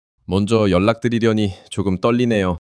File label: shy